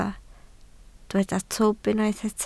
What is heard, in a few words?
"twp", Welsh